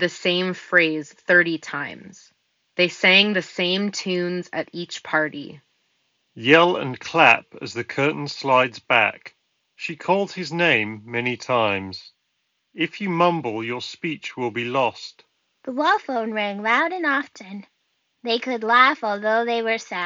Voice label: Ideal microphone sample; Sennheiser Accentum TW